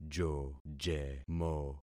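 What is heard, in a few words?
"jojemo", concatenated syllables; Affixing experiment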